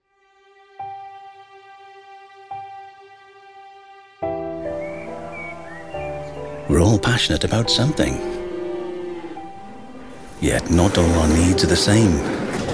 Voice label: British Voiceover South Wales Accent FARMER Voice Natural; FRAMELCO